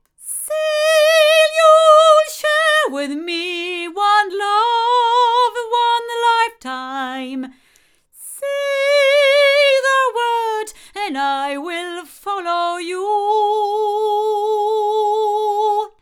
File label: Sontronics Helios cardioid female, microphones recordings